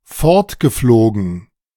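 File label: German "fortgeflogen"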